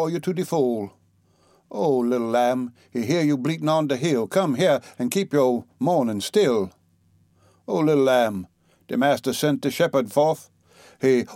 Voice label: hymn, humour and dialect